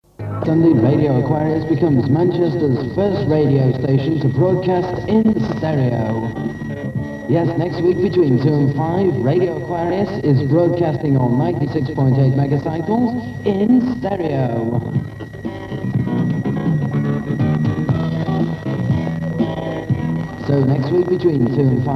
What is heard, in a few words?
Radio In stereo; Radio Aquarius; "from 1973 while we were in our first FM phase. It wasn't really stereo, just a pilot tone to light the 'stereo' indicator on FM tuners"; Mersey Pirates